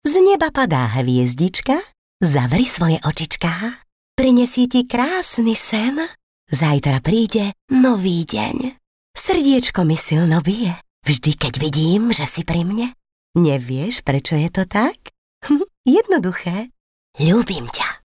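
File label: Female Slovak drama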